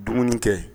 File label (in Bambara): "dumuni kɛ", Bambara